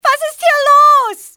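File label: whats happen, female; XBOX; civ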